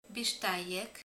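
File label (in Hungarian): "huszonegy", Hungarian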